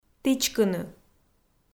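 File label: "тічкыны", Komi, female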